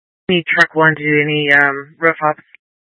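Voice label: Incident Tactical, scanner audio recording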